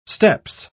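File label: "steppes", British English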